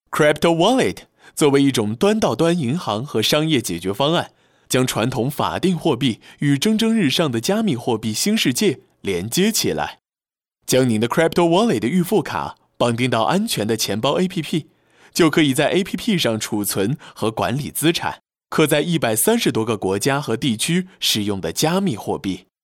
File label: chinese mandarin animation